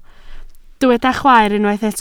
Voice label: "chwaer", Welsh